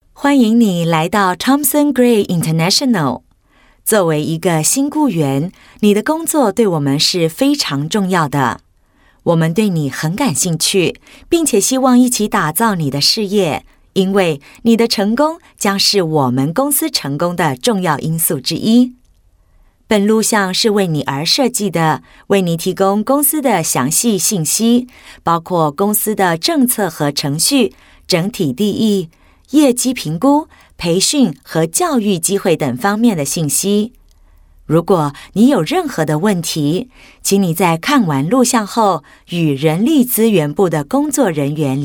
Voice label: Corporate, Mandarin China Female